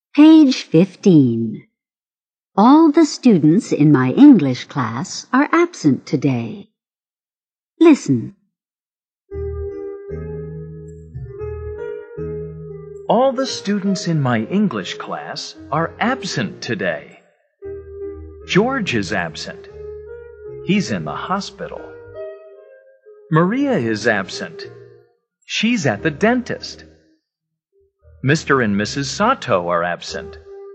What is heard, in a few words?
Reading